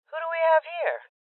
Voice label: Voices Enemy Spotted Female